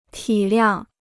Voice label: "体谅", Chinese

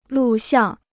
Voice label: "录像", Chinese

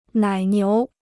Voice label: "奶牛", Chinese

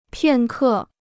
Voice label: "片刻", Chinese

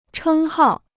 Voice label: "称号", Chinese

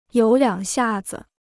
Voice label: "有两下子", Chinese

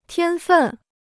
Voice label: "天分", Chinese

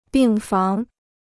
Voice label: "病房", Chinese

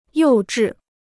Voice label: "幼稚", Chinese

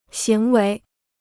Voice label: "行为", Chinese

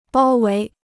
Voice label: "包围", Chinese

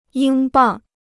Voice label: "英镑", Chinese

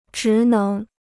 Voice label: "职能", Chinese

